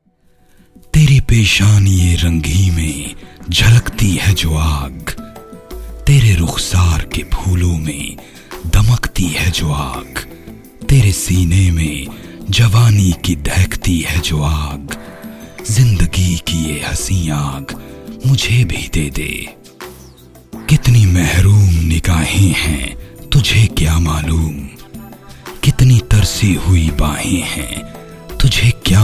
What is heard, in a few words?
Hindi, male voice